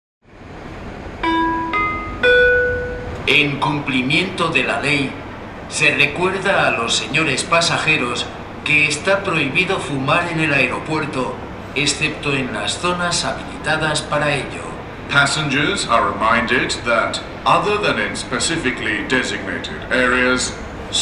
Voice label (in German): das Rauchen auf diesem Flughafen, Flughafen Ansagen